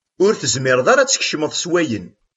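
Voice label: "Ur tezmiremt ara ad tkecmemt akken.", Kabyle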